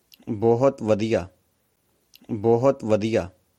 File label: Well done punjabi